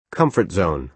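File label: "comfort zone", American English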